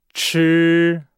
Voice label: "chi", Nihao

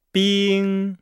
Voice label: "bing", Nihao

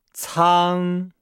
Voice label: "cang", Nihao

